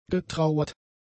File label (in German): "getrauert", german; adjectives